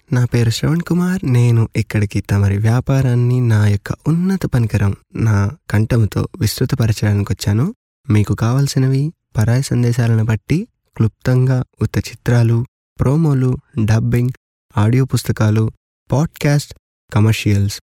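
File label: Telugu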